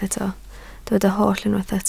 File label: "holl", Welsh